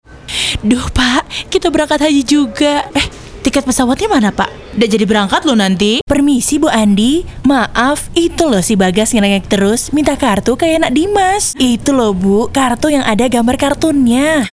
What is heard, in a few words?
INDONESIA CHARACTER